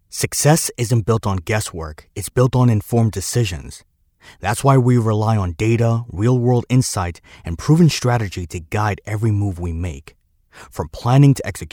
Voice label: Authoritative Knowledgable Informative